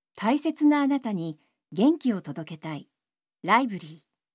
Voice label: Narration